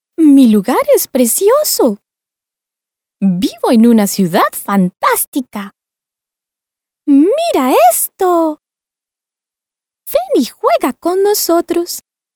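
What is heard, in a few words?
female children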